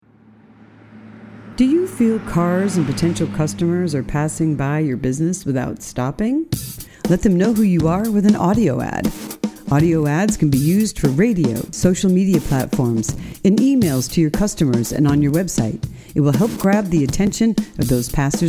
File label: holiday promotional spot